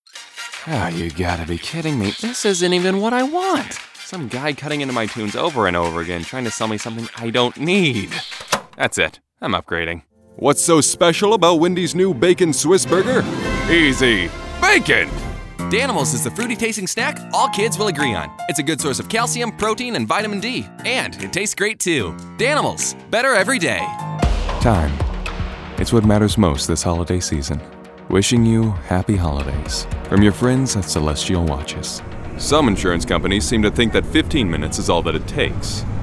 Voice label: Commercial Demo Reel, Voice Actor